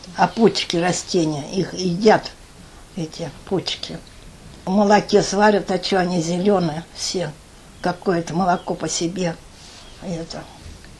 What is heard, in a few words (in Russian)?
среднеобский говор; пучка